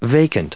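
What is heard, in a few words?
"vacant", US English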